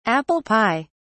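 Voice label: "apple pie", British English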